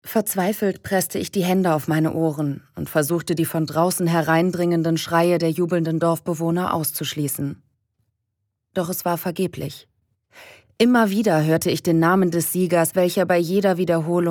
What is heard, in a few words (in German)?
Hexenspiele, hoerbuchsprecherinnen